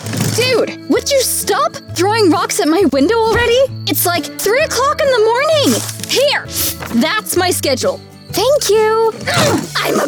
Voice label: Character